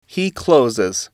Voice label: "he closes", english